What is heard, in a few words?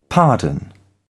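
"pardon", British English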